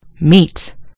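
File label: "meet", British English; gcompris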